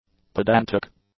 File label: "pedantic", English; adjectives